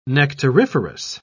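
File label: "nectariferous", British English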